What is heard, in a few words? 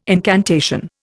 "incantation", Indian